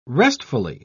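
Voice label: "restfully", English, British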